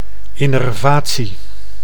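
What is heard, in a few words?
"innervatie", Dutch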